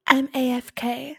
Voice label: afk female voice